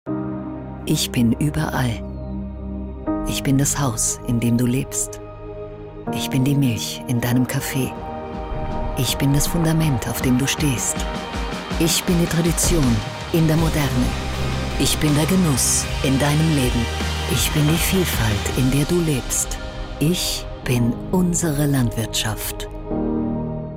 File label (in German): Frau OFF